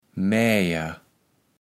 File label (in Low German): "Mäijer", kaevels platt